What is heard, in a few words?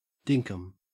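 "dinkum", Australian English; pronunciations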